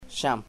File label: cousin or friend, chamacoco